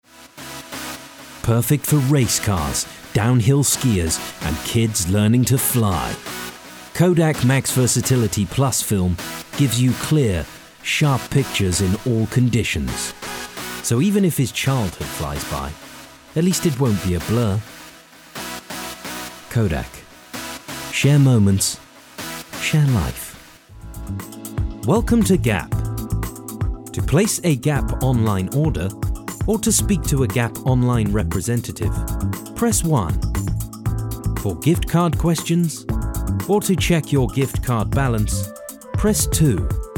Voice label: English UK

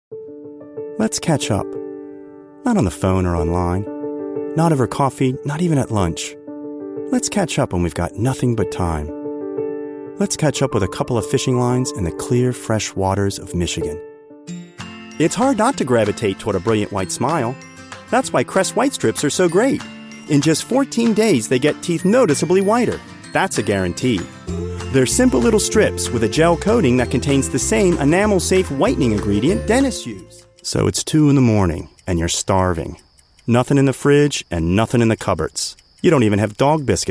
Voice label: English US

